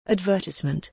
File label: "advertisement"